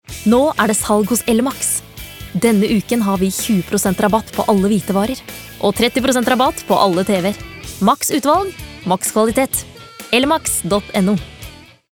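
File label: no hardsell, Norwegian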